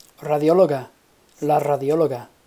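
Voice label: "radiologa", Spanish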